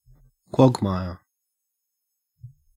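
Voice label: "quagmire", Australian English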